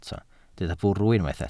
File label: "bwrw", Welsh